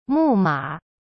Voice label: "木马", Chinese; cyberchinese